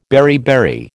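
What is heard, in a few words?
"beriberi", American English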